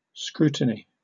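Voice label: "scrutiny" English, British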